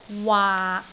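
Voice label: "waak6", Cantonese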